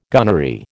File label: "gunnery", American